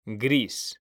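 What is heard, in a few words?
"gris", Spanish, male; mekanta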